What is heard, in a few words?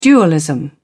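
"dualism", British English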